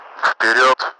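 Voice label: forward, Russian; Mi-8MT; DCS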